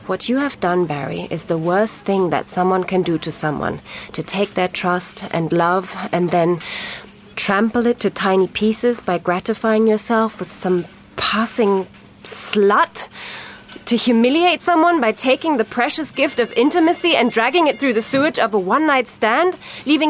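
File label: German, English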